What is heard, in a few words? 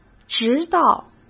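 "直到", Mandarin Chinese; hsk; chinese-flashcards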